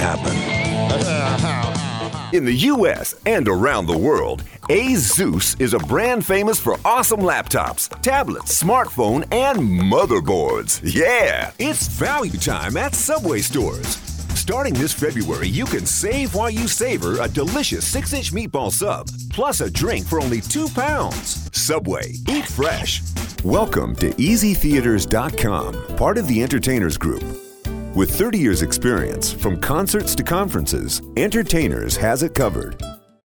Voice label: Straight Read Commercial; Commercial mash up